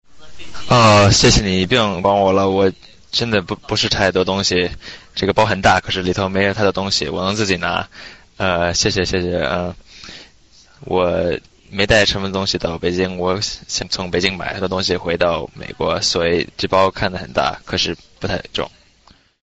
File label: Chinese Conversation